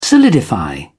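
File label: "solidify", English, British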